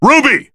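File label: Assist Call, English; BBTAG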